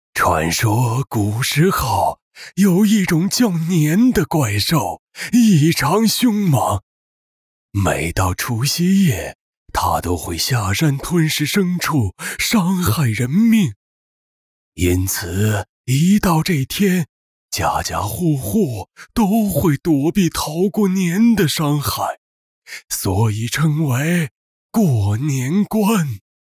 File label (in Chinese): 剧本杀 旁白 老年音; 过年